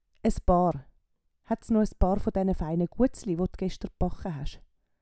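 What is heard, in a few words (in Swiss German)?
"es paar", Swiss German; Wortschatz Kapitel 8; Kapitel 8 Zusatzwortschatz 4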